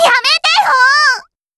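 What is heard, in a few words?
"yamete", Japanese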